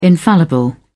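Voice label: "infallible", British English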